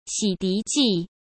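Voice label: "洗涤剂", Mandarin Chinese; chinese flashcards; hsk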